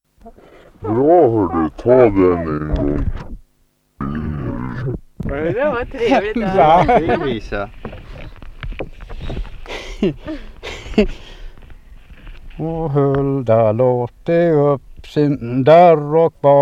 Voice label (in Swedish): dialekter; Folkminnen; Svenska sagor